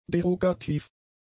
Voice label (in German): "derogativ", german; adjectives